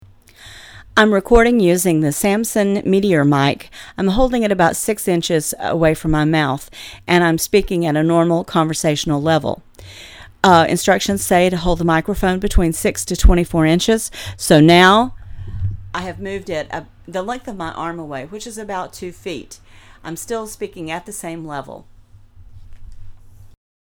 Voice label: mic speaking with meteor; samson